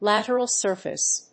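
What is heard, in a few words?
"Lateral surface", English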